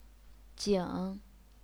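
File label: "jing", Chinese, third tone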